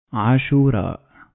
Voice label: "Ashura" Arabic